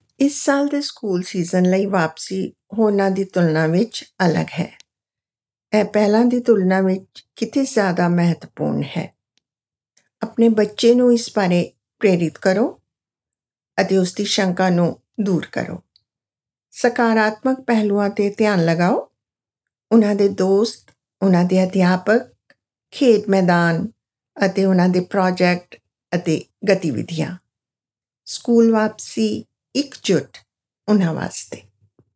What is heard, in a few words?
Message Punjabi